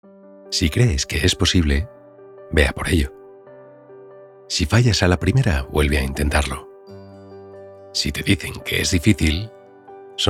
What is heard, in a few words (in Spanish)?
profundo